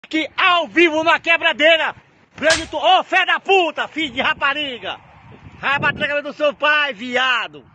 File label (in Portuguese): AAAH FILHO DA PUTA, Portuguese